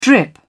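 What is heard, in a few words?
"drip", British English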